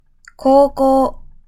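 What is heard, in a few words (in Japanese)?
"高校" Japanese, male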